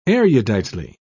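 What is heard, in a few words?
"eruditely", British English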